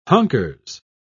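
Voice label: "hunkers", English, British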